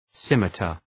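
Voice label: "scimitar" English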